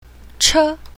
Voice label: "che1", pinyin, Mandarin